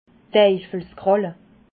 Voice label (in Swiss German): "deifelskralle", alsace